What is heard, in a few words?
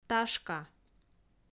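"ташка", Komi, female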